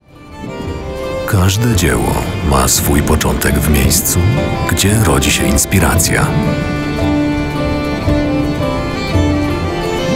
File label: polish commercial